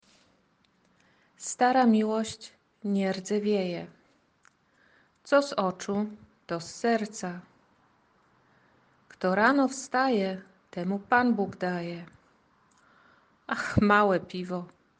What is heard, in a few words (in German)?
Polnisch Redewendungen